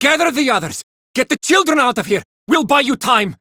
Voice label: Villagers Male, Cetus Invasion

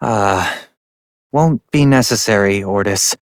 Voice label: Operator Voice, en; New War; Ascend Tower

